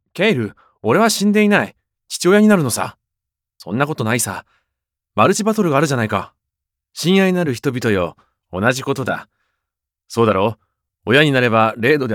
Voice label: JAPANESE MEN